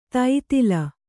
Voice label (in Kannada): "ದತ್ತೆಲೆ", kannada